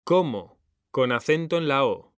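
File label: "cómo", Spanish, male; mekanta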